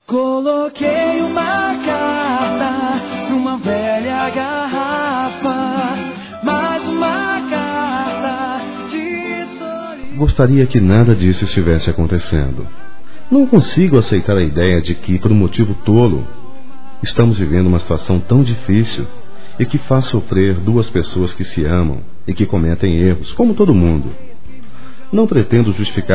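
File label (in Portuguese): DESCULPAS ROMANT. masculino, Telemensagens; Telemensagens 2017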